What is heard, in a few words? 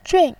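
"jun4", Mandarin Chinese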